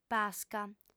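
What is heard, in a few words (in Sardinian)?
"pasca", female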